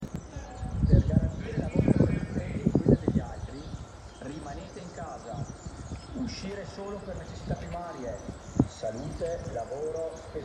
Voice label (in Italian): avviso vigili; calliano; Suoni Ambientali; Portobeseno